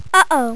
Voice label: UH OH; Matmath